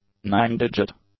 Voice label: "nine-digit", english; adjectives